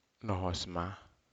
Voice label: Aneityum ripe banana

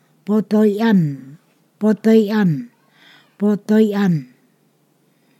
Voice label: "place for urinating", Ponosakan

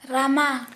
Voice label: "Fingernail", Santali

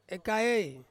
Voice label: "kaei", Ansus; to tie

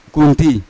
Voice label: "gunti", Yawa; neck; unat

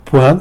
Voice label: "pwen", bridge, tlacolula

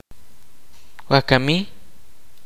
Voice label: kaqchikel

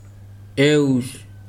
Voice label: "cigarette", Weri

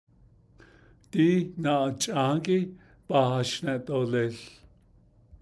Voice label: I will talk about this picture, Navajo; Díí na’ach’ąąhígíí baa hashne’ dooleeł.; Navajo Adverb Lexicon

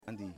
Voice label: "Ground", Yimas

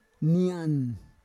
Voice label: "nian", Roon

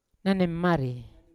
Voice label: "nanimari", Yawa; to forget; unat

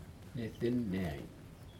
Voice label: coconut milk, Aneityum

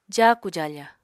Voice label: "jaaku-jaafa", Apatani

